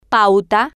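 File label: "pauta", Spanish, female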